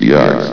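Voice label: "yards", male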